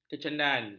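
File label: "kecandan", Malay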